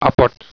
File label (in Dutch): "apport", dog